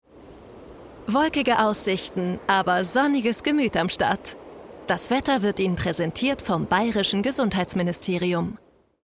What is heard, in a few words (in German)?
Werbung Wettersponsering